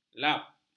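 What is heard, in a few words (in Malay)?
"lap", Malay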